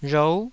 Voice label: "rhou9", taiwanese

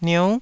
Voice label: "niou9", taiwanese

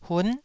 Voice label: "hun9", taiwanese syllable

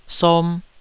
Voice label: "som2", Taiwanese syllable

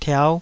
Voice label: "tiau5", Taiwanese

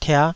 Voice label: "tiah5", Taiwanese

